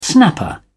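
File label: "snapper", British English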